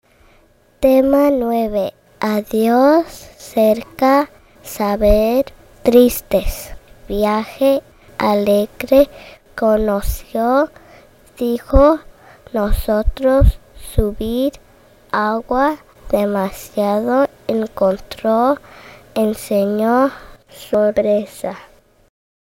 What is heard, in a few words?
High Frequency Spanish